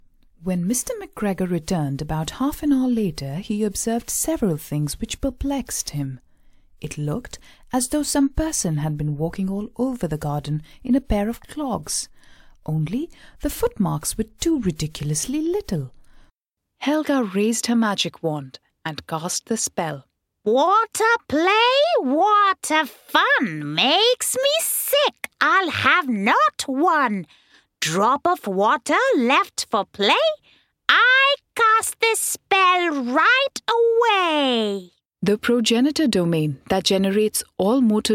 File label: dry reads English Hindi